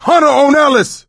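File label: pounced, voice, male; Left 4 Dead 2; coach; hunter; ellis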